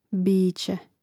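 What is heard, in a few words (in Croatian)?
"bice", Hrvatski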